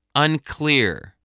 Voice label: "unclear", English spelling voice

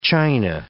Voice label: "china", English

